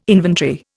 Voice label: "inventory", Indian

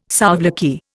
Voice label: "souvlaki", Indian

